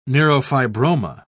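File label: "neurofibroma", British English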